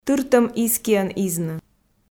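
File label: "тыртӧм изкиӧн изны", Komi, female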